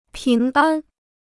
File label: "平安", Chinese